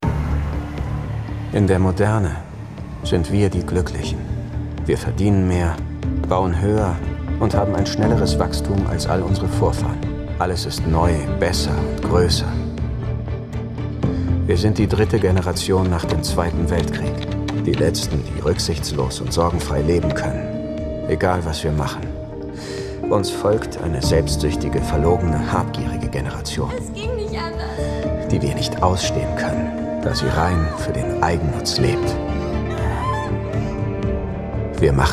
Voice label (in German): Synchron, maenner; Valkyrien; InDerModerne